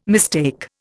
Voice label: "mistake", Indian

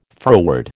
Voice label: "froward", American

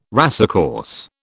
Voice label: "racecourse", British

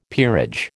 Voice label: "peerage", American

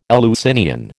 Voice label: "Eleusinian", American

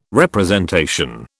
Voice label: "representation", British

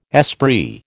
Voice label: "esprit", American

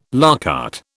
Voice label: "à la carte", British

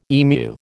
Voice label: "emu", American

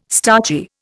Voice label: "starchy", Indian

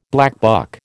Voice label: "blackbuck", American

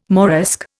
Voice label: "Moresque", Indian

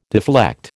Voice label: "deflect", American

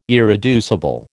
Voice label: "irreducible", American

